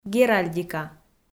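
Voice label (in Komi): "геральдика", Komi-Zyrian, female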